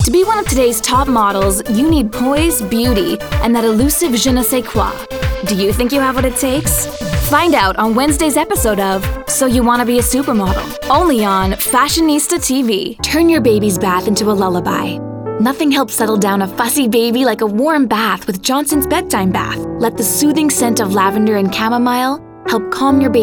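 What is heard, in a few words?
commercial, voiceovers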